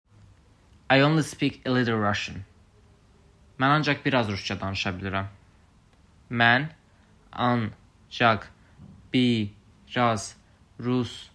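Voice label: I only speak a little Russian. Mən ancaq biraz rusca danışa bilirəm, azerbaijani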